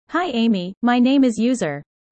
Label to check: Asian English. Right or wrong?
Wrong